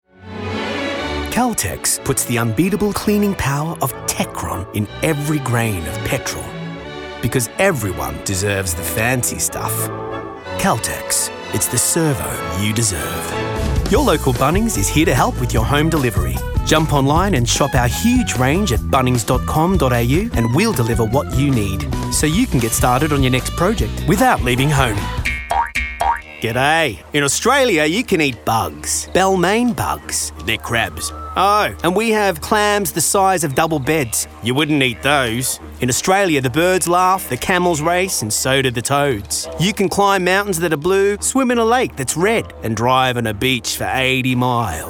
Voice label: commercial, london